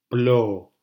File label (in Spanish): "plo", silabas; lectura